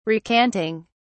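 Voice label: "recanting", British English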